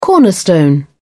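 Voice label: "cornerstone", British English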